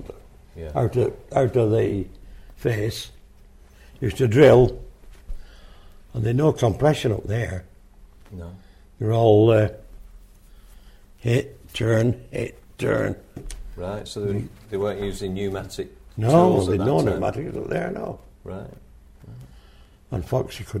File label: Hand drilling holes ready for shot firing, Oral history